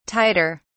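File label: "tighter", British English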